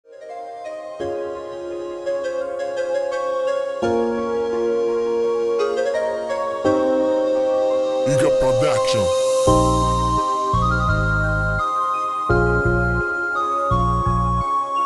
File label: rap